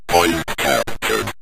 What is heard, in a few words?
point captured, synth voice; Kenney's Sound Pack